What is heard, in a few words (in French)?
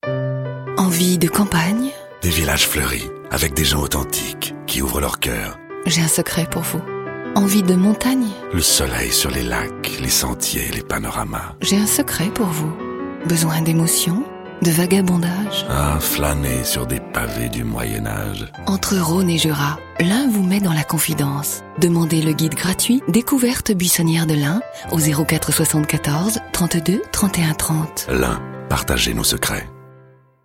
radio institutionnel doux; region de lain